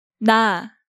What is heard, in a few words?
"Na", Hangeul sounds; DigitalSoju